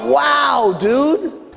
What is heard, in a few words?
"wow dude"